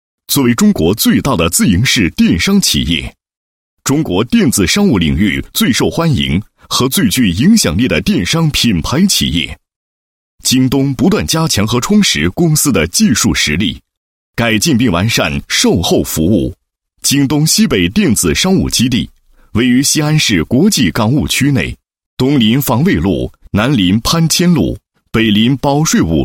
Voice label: 男 工程解说, 电子商务基地演示工程解说; 京东电子商务基地演示工程解说

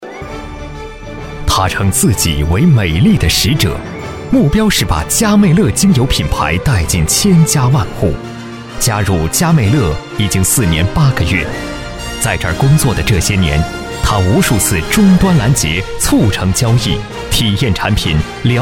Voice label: 男, 颁奖; 嘉媚乐年会颁奖

